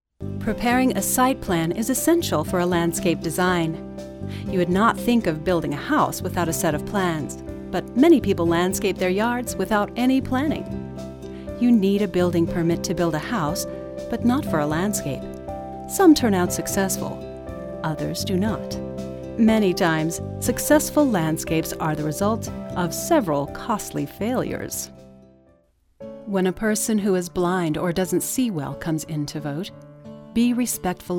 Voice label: narration

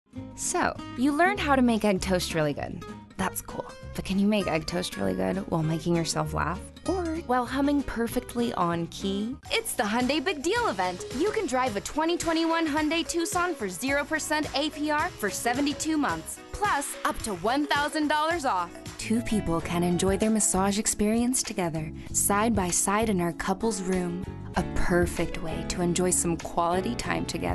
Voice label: Commercial

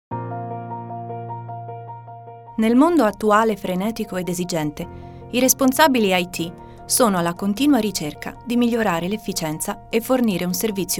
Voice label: Italian

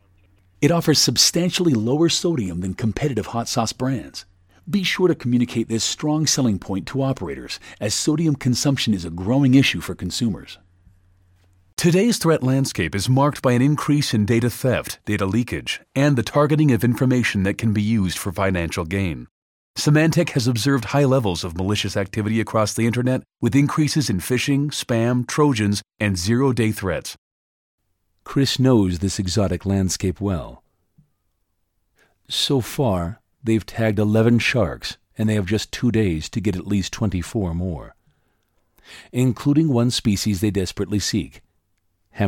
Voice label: Industrial Narration Audiobook

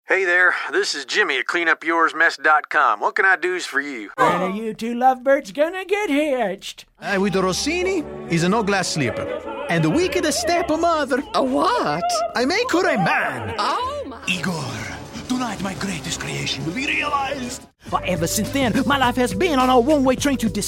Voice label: CHARACTER

